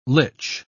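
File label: "lych", British English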